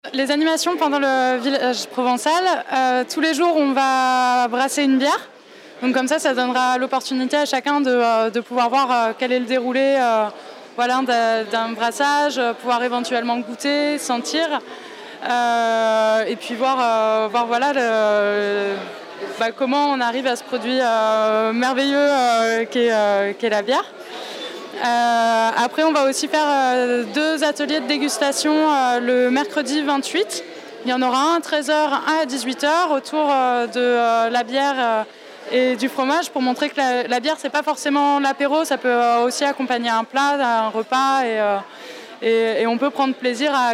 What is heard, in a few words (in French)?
podcast